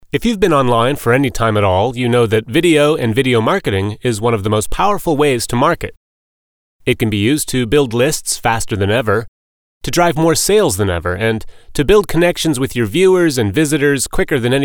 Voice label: US elearning; sprecher; englisch